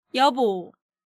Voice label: "Yeobo", Korean